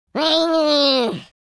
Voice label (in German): angry, German